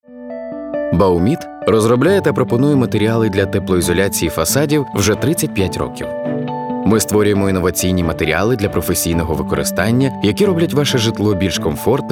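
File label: Ukrainian, male, corporate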